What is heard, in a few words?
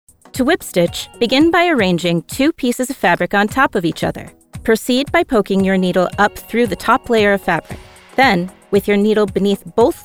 narration